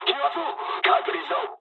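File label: damaged oil mood med, speech japanese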